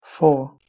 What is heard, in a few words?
"foo", Middle English